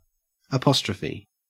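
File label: "apostrophe", Australian English; pronunciations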